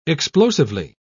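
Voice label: "explosively", English, British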